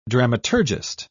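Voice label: "dramaturgist", British English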